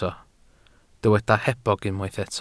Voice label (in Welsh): "hebog" Welsh